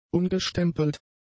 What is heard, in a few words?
"ungestempelt", German; adjectives